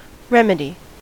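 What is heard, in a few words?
"remedy", American English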